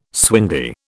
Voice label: "swingy", British

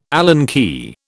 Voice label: "Allen key", British

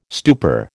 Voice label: "stupor", American

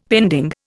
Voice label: "pending", Indian; Dictionary

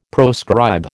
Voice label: "proscribe", American

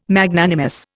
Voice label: "Magnanimous", Indian

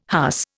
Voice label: "huss", Indian

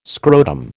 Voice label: "scrotum", American

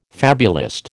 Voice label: "fabulist", American

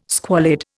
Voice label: "squalid", Indian

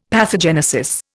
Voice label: "pathogenesis", Indian; Dictionary

